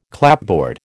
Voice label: "clapboard", American

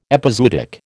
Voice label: "epizootic", American

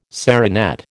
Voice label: "serinette", American

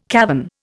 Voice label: "cavern", Indian

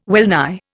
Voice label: "wellnigh", Indian

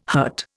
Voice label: "hurt", Indian

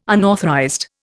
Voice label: "unauthorized", Indian

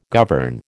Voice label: "govern", American

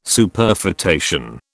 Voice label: "Superfetation", British

